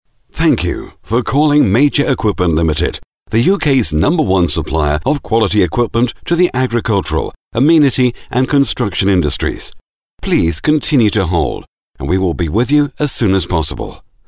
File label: telephone